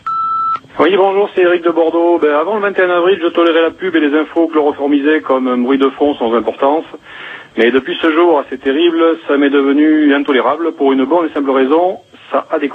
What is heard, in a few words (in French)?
Pub répondeur; France Inter